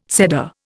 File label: "Seder", Indian